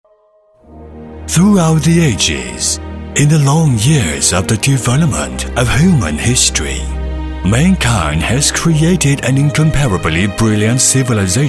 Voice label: 英语男, 大气情感; 南通三建六十年

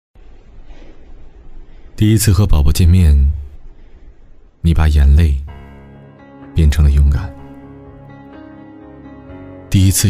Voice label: 国语男, 广告, 温暖; OLAY玉兰油

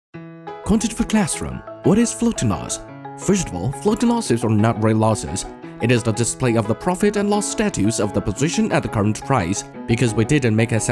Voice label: 英语男, 英语快语速, 课堂; 量化

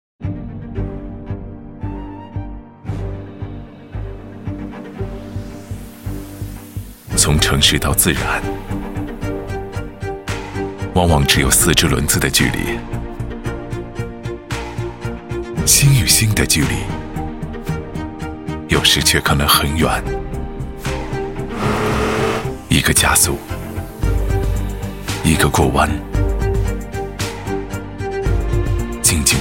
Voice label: 国语男 广告 汽车类; 哈弗H7; 让心更近

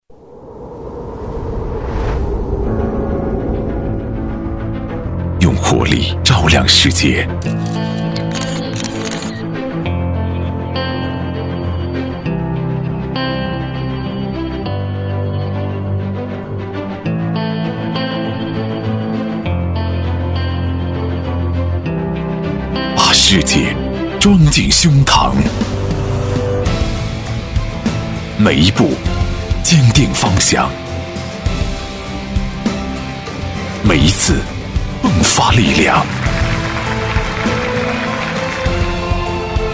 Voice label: 国语男 广告 酒类; 稻花香酒

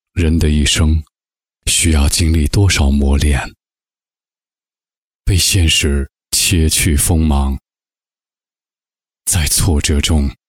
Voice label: 国语男

